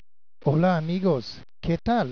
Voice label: spanish